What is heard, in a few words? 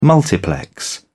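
"multiplex", English, British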